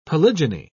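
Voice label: "polygyny", British English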